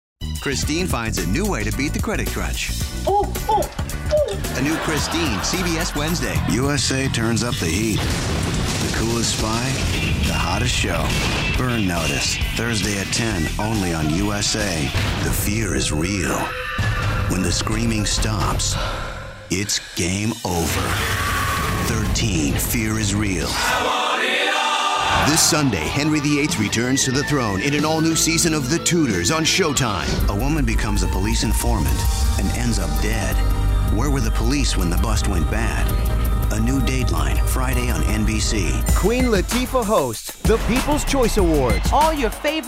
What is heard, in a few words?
men promos; Mid-lower range with some texture. Wry, knowledgeable, articulate, subtle and edgy.